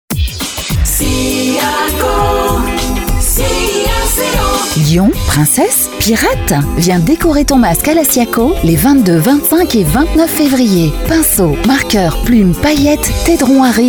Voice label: spot